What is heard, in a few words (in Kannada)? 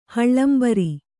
"ಹಳ್ಳಂಬರಿ", Kannada